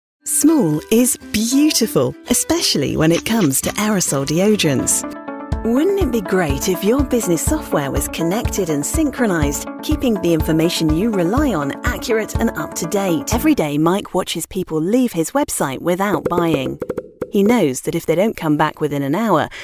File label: explainer